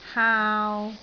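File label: "haau3", Cantonese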